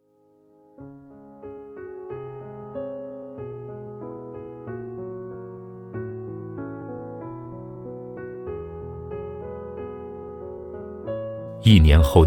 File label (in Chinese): 情感类; 我的父亲母亲